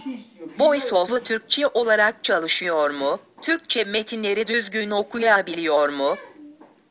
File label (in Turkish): voice over turkce; lion